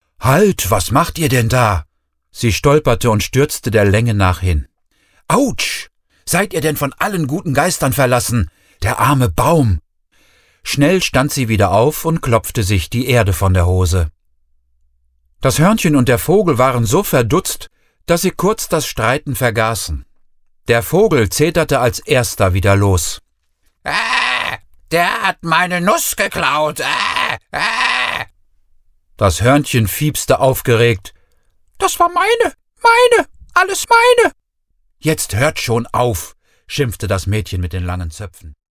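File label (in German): Ausschnitt Kinderbuch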